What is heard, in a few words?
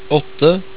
"åtte", Norwegian